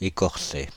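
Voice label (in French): "Écorcei", French; Fr-Paris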